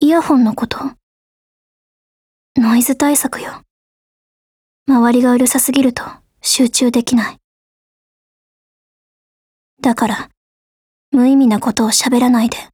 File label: DIALOGUE JP; Magal